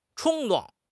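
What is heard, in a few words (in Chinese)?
"冲动", Chinese; HSK6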